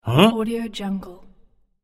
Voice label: man huh